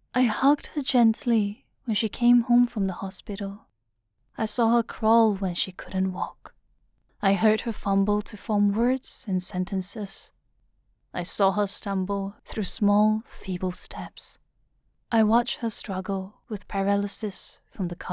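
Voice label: EN SG PSA Narration Mature, Singapore English; Seatbelt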